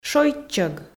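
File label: "шойччӧг", Komi, female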